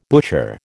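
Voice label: "butcher", American English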